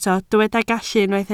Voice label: "gallu", Welsh